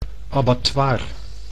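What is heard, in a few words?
"abattoir", Dutch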